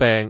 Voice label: "beng3", cantone; microsoft Wan Lung

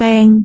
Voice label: "beng3", cantone

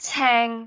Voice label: "ceng6", cantone; microsoft Hiu Maan

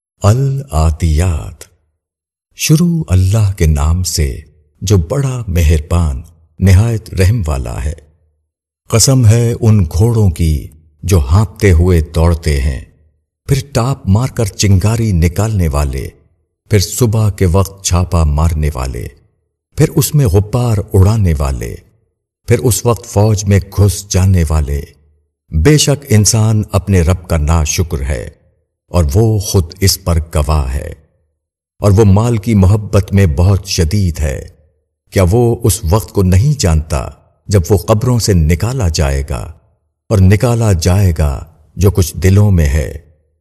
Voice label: URDU TARJUMA E QURAN; Inzaar; AL AADIYAT

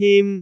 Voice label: "him1", cantone; google D

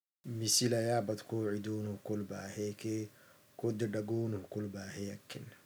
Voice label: afar audio, Afar language

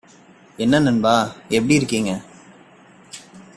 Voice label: tamil audio

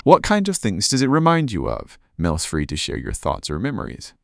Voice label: emotion

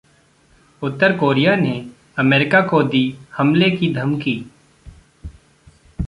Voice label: Hindi

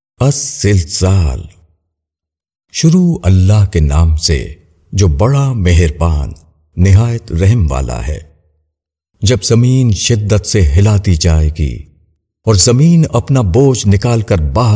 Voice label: AL ZILZAL, URDU TARJUMA E QURAN; Inzaar

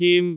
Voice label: "him1", cantone; google B

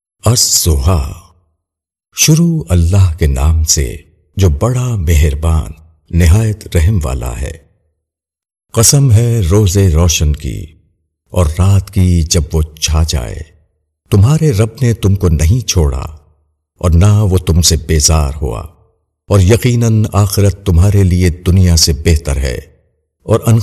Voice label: AL ZUHA, URDU TARJUMA E QURAN; Inzaar

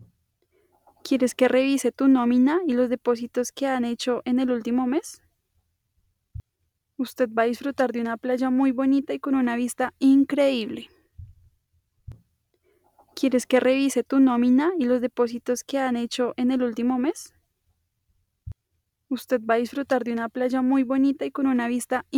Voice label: female

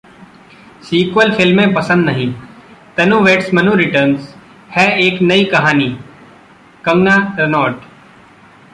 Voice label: Hindi

